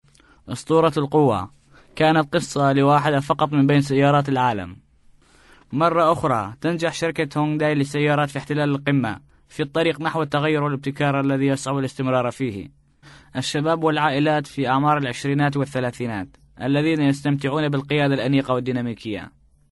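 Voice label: Arabic, male, various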